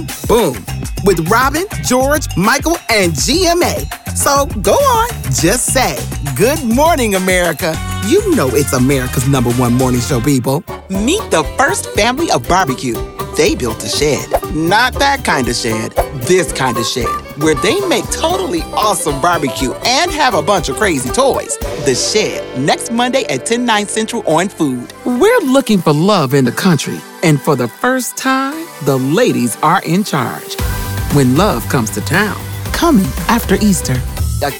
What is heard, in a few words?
vo promo